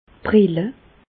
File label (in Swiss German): "brille", Bas Rhin; medecine; lunettes